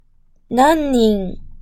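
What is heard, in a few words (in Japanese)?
"なんにん", Japanese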